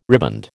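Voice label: "riband", American English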